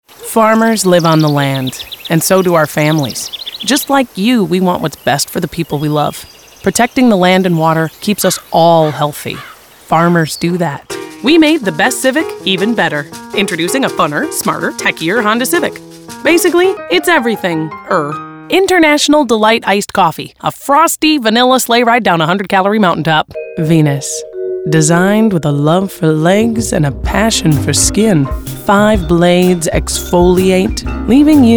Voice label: Commercial